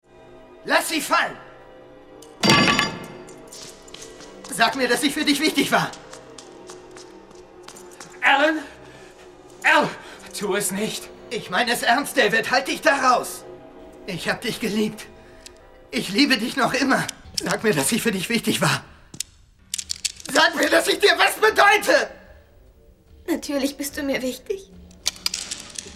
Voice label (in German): synchro